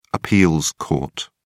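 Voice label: "appeals court", British English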